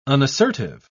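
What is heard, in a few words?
"unassertive", British English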